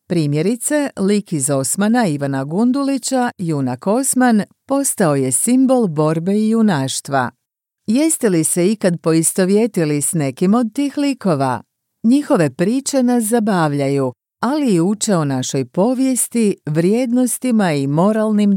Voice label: eLearning, croatian